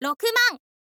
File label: girl